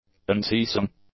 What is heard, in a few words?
"unceasing", English; adjectives